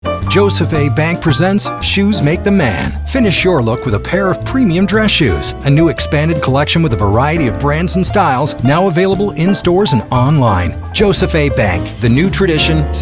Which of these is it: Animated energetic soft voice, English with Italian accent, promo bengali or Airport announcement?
Animated energetic soft voice